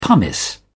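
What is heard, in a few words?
"pumice", British English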